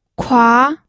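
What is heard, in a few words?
"kua", second tone, pinyin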